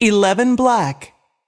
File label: voice over, roulette; frenchroulette3